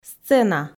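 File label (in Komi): "сцена", Komi, female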